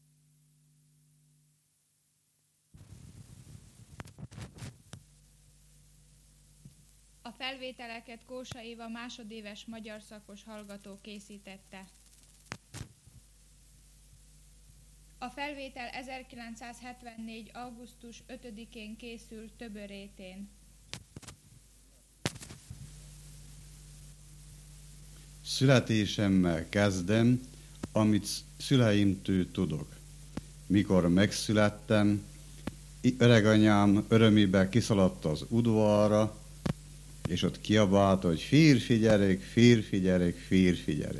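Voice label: dialect collection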